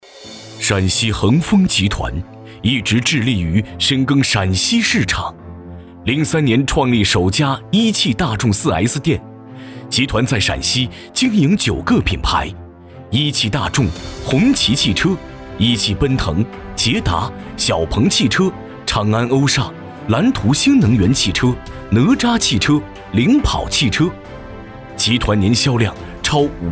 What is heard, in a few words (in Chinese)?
男, 专题, 企业专题; 陕西恒丰